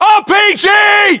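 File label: threat rpg, battlechatter British; localized english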